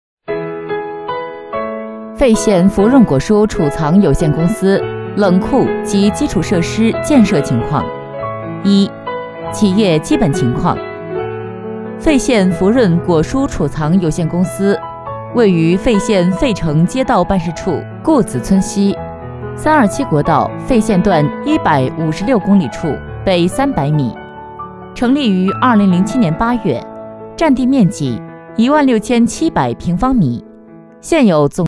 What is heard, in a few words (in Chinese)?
女 专题类 大气稳重; 费县